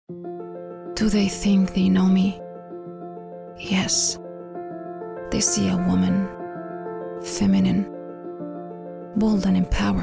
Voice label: english, english female voices arabic accent